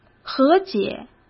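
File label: "和解", Mandarin Chinese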